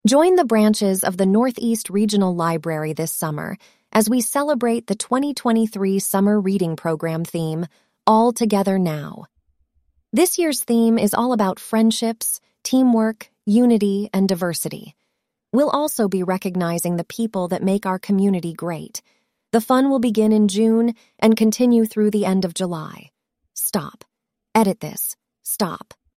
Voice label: voice over; Summer Reading Program